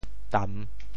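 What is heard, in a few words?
"tam3", Teochew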